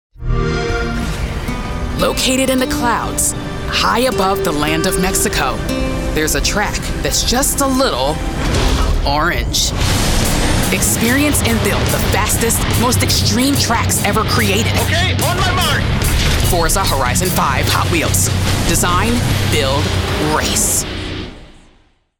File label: Determined Gritty Anticipatory Game Trailer; Forza Horizon 5 Hot Wheels